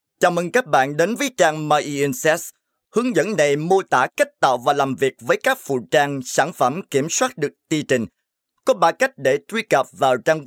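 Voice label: Vietnamese, male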